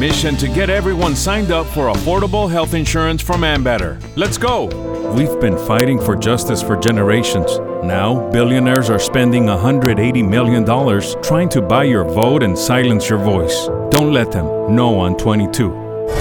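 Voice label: english with accent, Professional, confident, strong baritone.; Commercial Voiceover, TV and Radio, Narration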